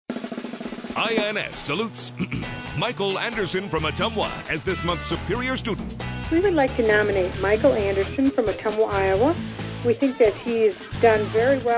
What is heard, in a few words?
commercial; INS Superior Student 2010